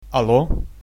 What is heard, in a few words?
hello, ukrainian